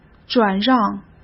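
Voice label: "转让", Mandarin Chinese; hsk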